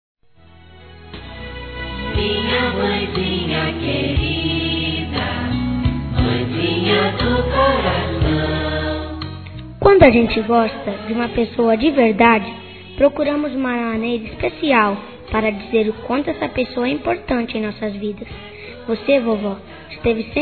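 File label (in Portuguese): AVÓ, male, CRIANÇA, Telemensagens Dia das Mães; Masc; Telemensagens 2017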